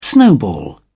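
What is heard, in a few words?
"snowball", British English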